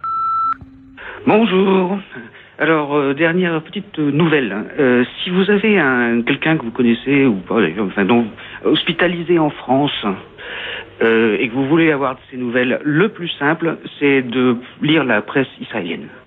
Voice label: répondeur; Yasser Arafat

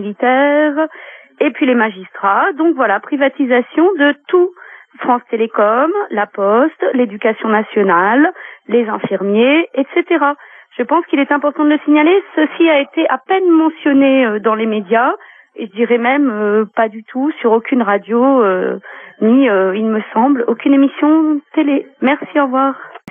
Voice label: Fonction publique repondeur

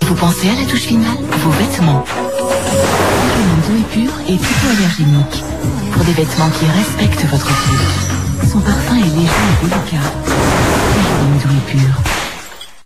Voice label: Pub lessive

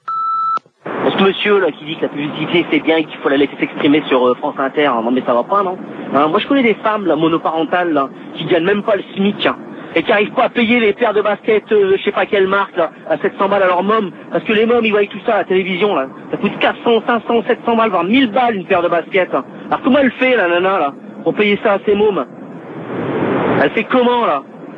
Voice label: Pub répondeur; France Inter

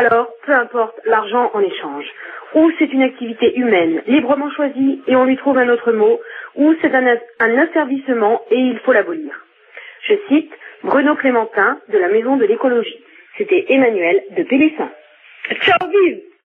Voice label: Danger travail repondeur

